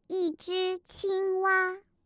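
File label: FROG, Mandarin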